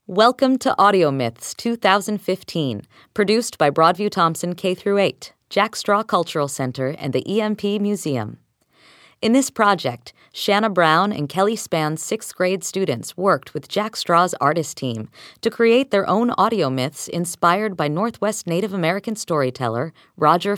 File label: Opening Narration; Broadview-Thomson Audio Myths 2015